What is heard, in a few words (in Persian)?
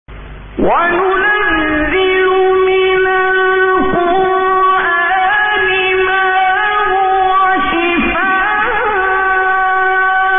تلاوت روحبخش سوره اسرا; استاد عبدالباسط; آیه 82